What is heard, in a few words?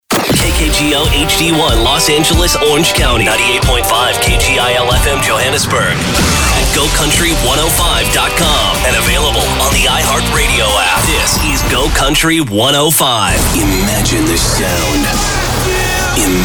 radio, radio imaging